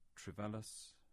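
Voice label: "Trevellas", English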